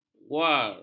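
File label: "guar", Malay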